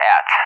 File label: "at", ENG, AWACS; DCS